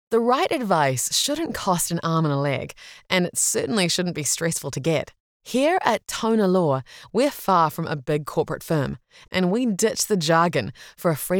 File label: english new zealand real estate; voice actor